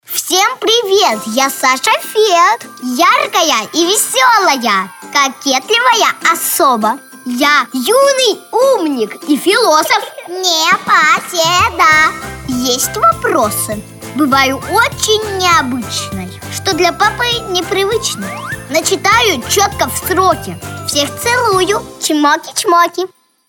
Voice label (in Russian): detskiy golos